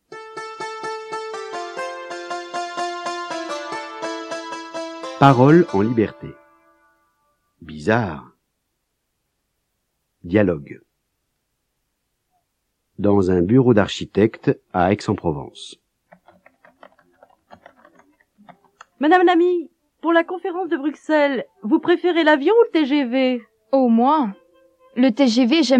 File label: French